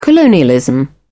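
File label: "colonialism", British English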